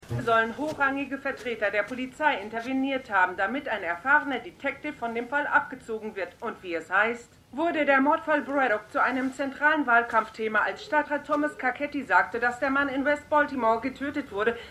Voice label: Nachrichtensprecherin; The Wire

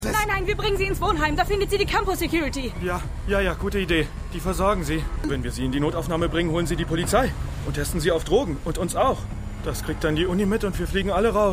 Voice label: Studentin Student; AtlantaMedical

